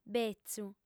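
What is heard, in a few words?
"betzu", lingua sarda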